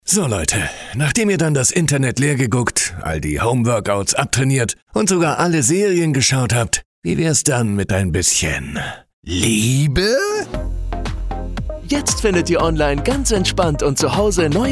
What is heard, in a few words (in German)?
Funkspot; ZV-Medienhaus; flirt